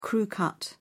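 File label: "crew cut", British English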